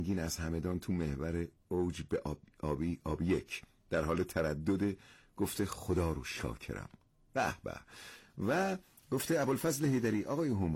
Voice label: Tajik; Ramadan